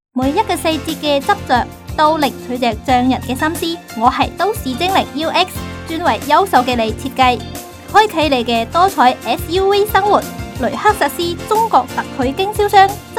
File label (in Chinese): 粤语女 汽车彩铃